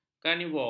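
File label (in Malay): "karnivor", Malay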